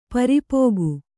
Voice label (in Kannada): "ಪರಿಪಾಕ", Kannada